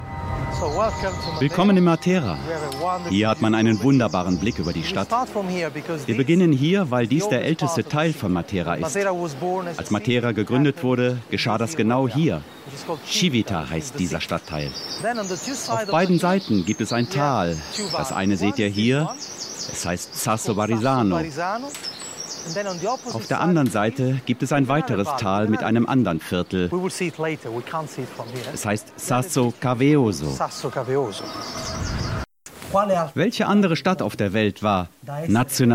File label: VoiceOver